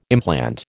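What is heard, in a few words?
"implant", American English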